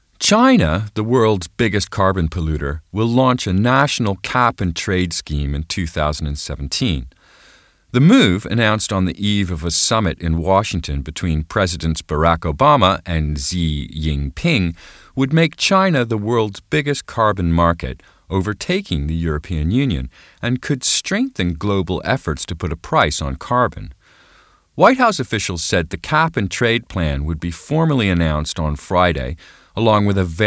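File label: US English, Neutral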